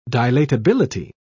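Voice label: "dilatability", British English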